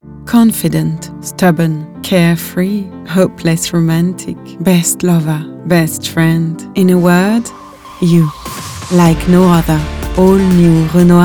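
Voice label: Voice Reel French accented English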